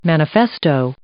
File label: "manifesto", English